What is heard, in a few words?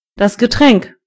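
drink, German voices words; gcompris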